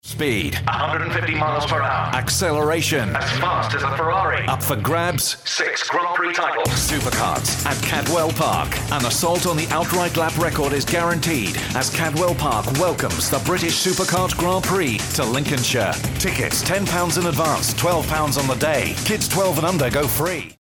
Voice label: uk com hard sell